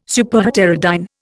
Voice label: "Superheterodyne", Indian